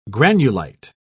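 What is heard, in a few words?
"granulite", British English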